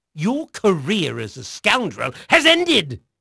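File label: English male; Pirates Of The Caribbean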